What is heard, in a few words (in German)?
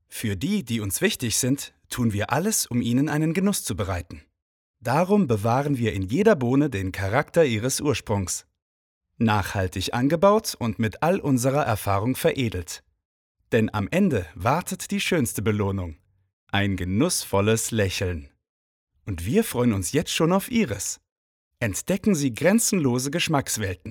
Werbung Kaffee hell freundlich